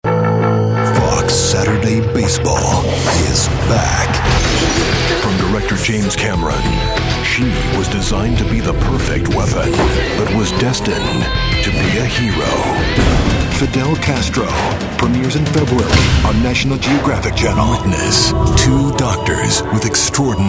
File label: promo, voiceovers male